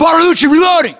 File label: inform reloading, US